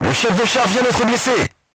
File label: voice message commander wounded, crew tanks french